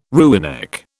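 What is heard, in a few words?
"rooinek", British English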